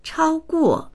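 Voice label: "chao1guo4", Mandarin Chinese; chāoguò; 超过; 超える、追い越す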